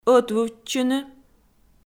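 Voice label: "ӧтвывтчыны", Komi, female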